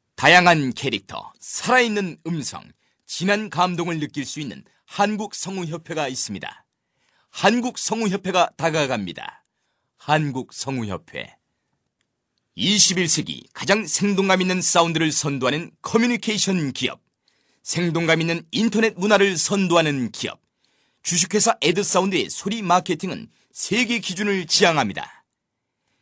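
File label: Korean, male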